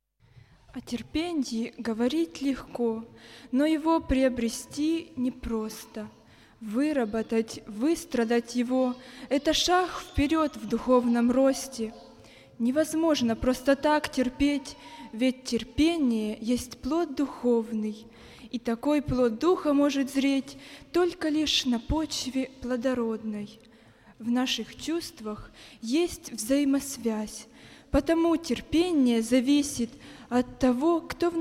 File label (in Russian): молодежь стих; Терпение; г. Саки; Записи Богослужений